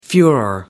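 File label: "furore", British English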